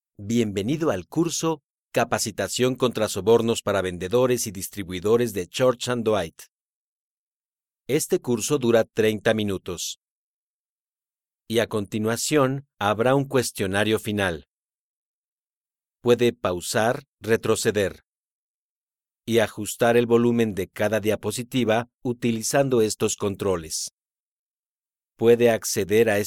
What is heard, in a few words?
eLearning/Training Male Spanish (Latin American)